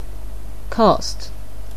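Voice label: "cost", English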